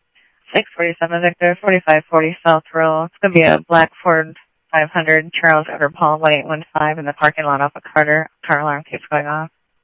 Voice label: Tempe Police, scanner audio